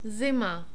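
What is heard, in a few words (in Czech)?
"zima", czech, male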